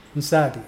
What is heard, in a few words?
bleed, Mokilese